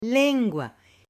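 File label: "lengua", Spanish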